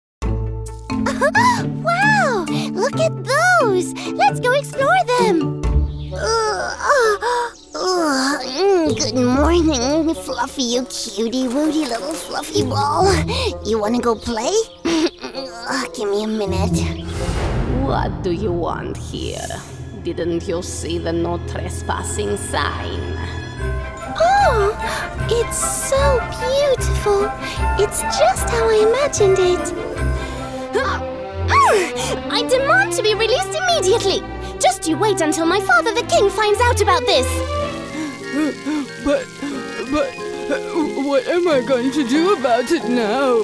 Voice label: Animation EN